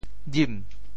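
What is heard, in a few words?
"jim2", Teochew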